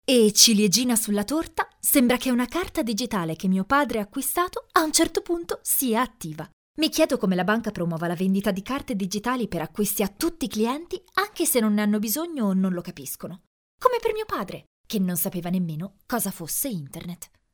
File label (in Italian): locutora italiana italian voiceover, Italian female voice talent